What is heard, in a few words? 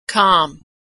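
"calm", American English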